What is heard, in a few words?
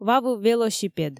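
Komi, female; вавыв велосипед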